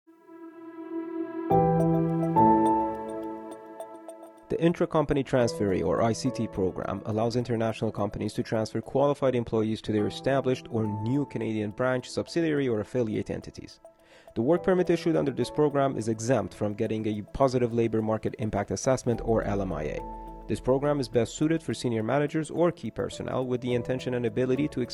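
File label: English, voices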